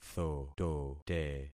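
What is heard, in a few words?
"thotote", concatenated syllables; Affixing experiment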